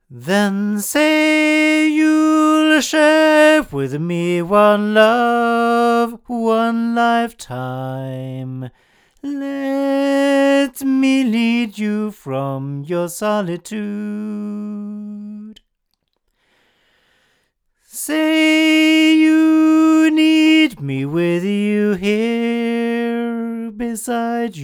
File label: Nevaton MC48 male, microphones recordings